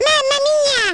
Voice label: "mamma mia", baby; baby mario